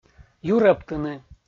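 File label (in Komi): "юрӧбтыны" Komi, male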